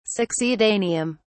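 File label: "succedaneum", British English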